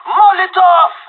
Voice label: molotov, pirate; Counter Strike Model Pack; CS:GO